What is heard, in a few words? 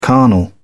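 "carnal", British English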